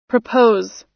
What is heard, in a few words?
"propose", us